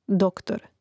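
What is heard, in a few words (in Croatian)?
"doktor", Hrvatski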